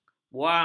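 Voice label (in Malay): "buang", Malay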